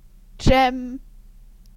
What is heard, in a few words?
"gem", en_GB; tdeedu; klettres